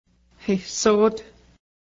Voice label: grandfather my, Cayuga; hehsó:t